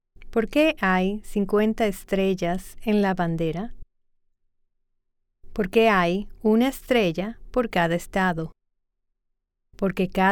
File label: Spanish